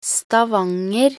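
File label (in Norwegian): "Stavanger", Norwegian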